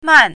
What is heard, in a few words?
"man4", Chinese pinyin